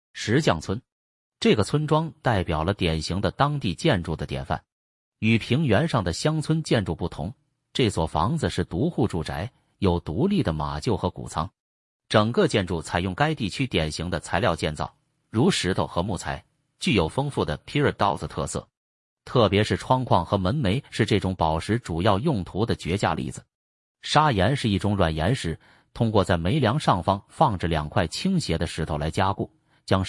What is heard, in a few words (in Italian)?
Audioguida CHI Borgo